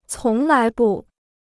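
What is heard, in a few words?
"从来不", Chinese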